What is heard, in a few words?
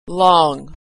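"long", American English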